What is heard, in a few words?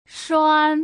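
"shuan", Putonghua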